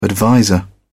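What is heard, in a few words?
"adviser", British English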